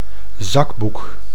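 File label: "zakboek", Dutch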